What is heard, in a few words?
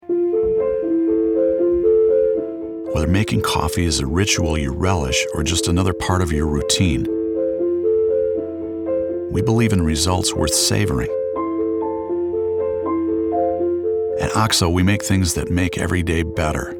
Warm Genuine Sincere Commercial